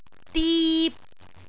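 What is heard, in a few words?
"dip3", Cantonese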